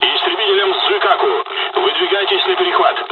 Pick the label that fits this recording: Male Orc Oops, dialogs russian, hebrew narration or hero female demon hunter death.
dialogs russian